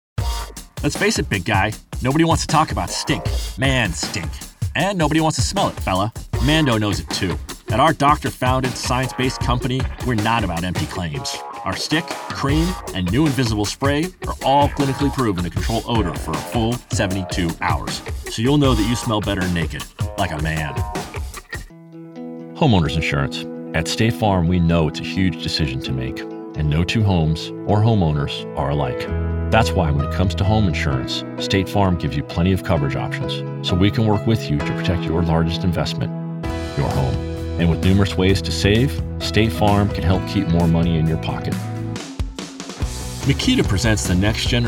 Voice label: CORPORATE